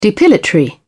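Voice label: "depilatory", English, British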